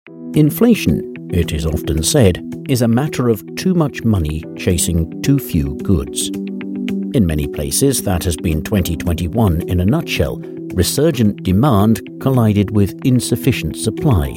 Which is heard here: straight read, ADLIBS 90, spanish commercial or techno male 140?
straight read